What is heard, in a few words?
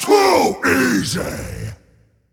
Male Pitlord Attack